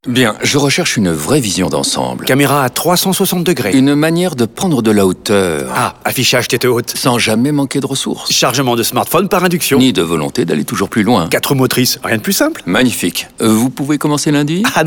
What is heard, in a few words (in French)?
Comedie Dialogue Bonne Humeur; RADIO; OPEL